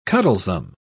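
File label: "cuddlesome", British English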